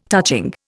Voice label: "touching", Indian

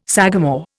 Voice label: "sagamore", Indian

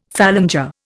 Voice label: "phalanger", Indian; Dictionary

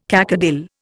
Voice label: "cacodyl", Indian

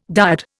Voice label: "dyad", Indian

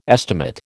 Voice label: "estimate", American

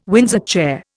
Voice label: "Windsor chair", Indian

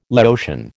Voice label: "Laotian", American

